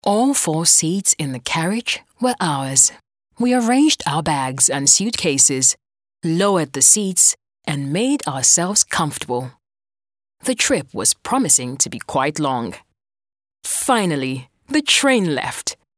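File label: English female Casual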